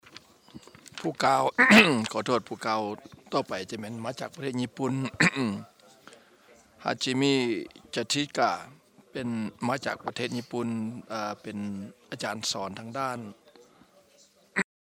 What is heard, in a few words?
Laotion; iclrs symposium 2009